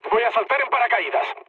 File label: bailout, mood med, speech; War Thunder